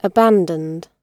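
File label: "abandoned", British English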